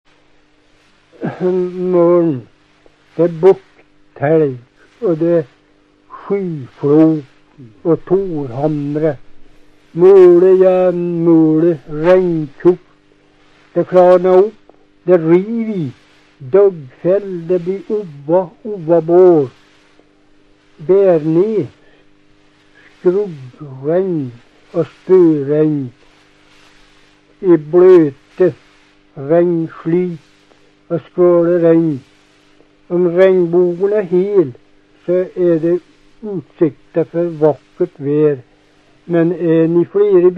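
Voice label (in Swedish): Hede Härjedalen, svenska sagor dialekter; Folkminnen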